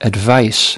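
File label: "advice", English word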